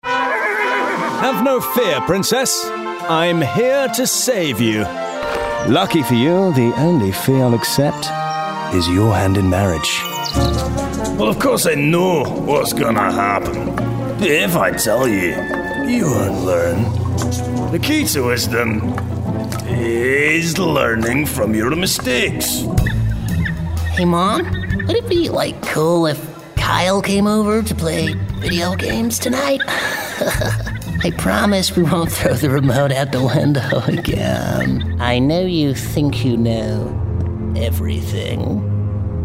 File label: Animation Reel